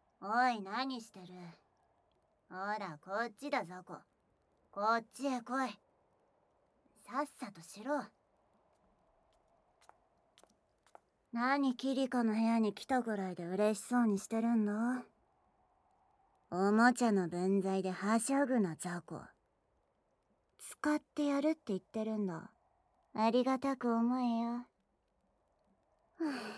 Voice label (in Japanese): 耳舐め蔑み囁き; 【ハイレゾ×KU100】魔王娘のおもちゃ～おもちゃの貴方は3人に精巣空っぽになるまでお耳を犯される～; キリカ; 足こき